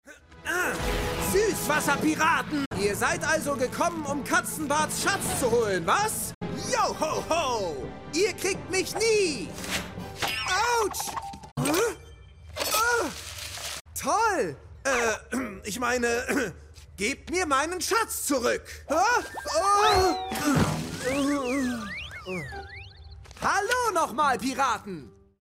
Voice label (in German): Animation Synchron